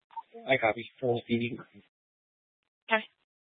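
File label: Police Dispatch, Scanner audio recording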